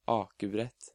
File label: "Akebrett", Norwegian